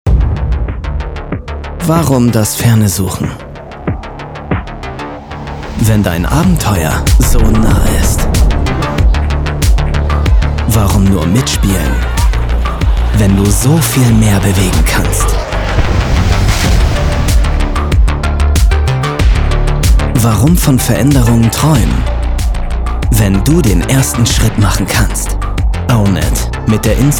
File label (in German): tv spot; garmin